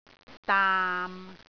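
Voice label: "daam6", Cantonese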